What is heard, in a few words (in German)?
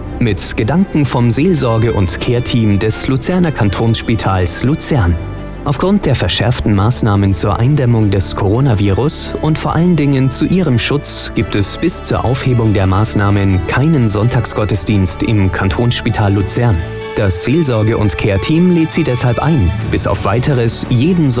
Spitalradio PROMO; Spitalradio LuZ; Hoffnungsfunken Zeit Wochentag